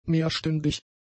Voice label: "mehrstündig", German; adjectives

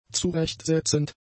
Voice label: "zurechtsetzend", German; adjectives

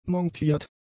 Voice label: "montiert", German; adjectives

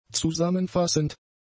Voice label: "zusammenfassend", German; adjectives

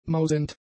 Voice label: "mausend", German; adjectives

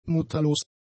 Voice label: "mutterlos", German; adjectives

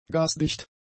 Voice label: "gasdicht", German; adjectives

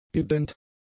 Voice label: "ident", German; adjectives

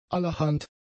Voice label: "allerhand", German; adjectives

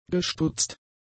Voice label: "gestutzt", german; adjectives

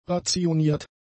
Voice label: "rationiert", German; adjectives

